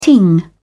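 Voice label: "ting", British English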